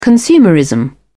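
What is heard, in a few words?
"consumerism", British English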